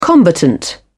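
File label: "combatant", British English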